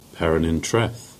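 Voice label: "Perranzabuloe" Cornish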